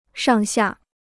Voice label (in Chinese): "上下", Chinese